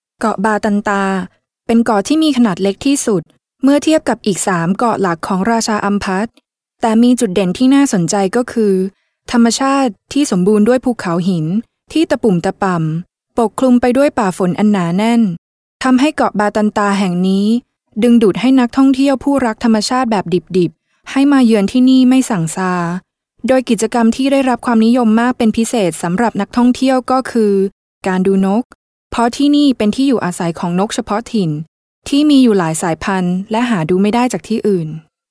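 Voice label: Thai female corporate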